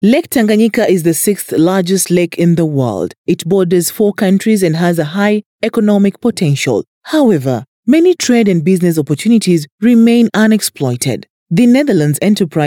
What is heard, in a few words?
Swahili; Lakes in East Africa